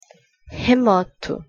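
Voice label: "remoto", Portuguese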